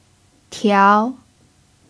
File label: "tiao2", Chinese